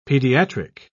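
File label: "pediatric", English, British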